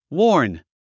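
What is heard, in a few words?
"warn", English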